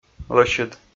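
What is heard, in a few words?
"лӧсьыд", Komi, male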